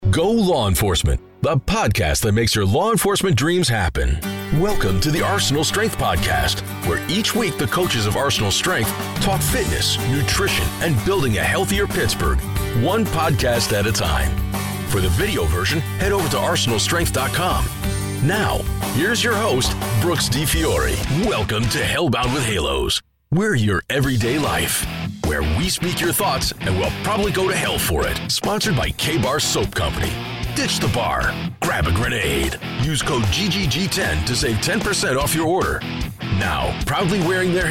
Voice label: english american podcasts